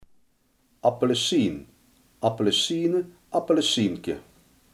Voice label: "sinaasappel", Limburgs

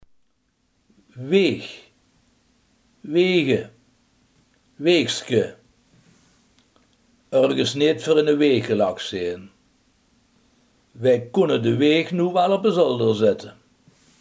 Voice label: "wieg", limburgse

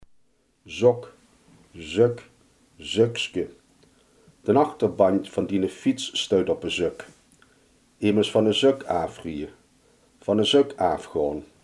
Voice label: "sok", Limburgs

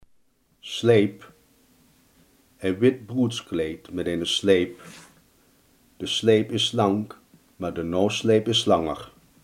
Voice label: "sleep", Limburgs

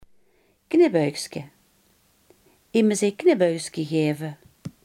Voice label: "knipoogje", Limburgs

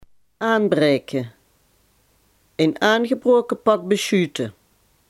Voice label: "aanbreken", Limburg

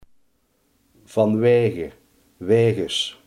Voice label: "vanwege", limburgse

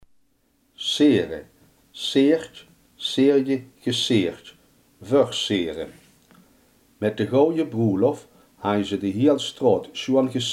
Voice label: "versieren", limburgse

